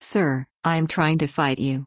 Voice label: Female Draenei; Story Hero Xyrella; BOM Scabbs Mission9 Exchange

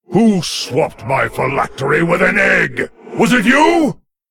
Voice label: Male Lich HAPPY NOBLEGARDEN

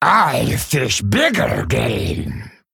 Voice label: Male Human Play

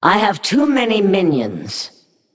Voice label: Female Naga ERROR FULL MINIONS

